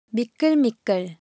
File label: Korean, Ideo Phones; Texture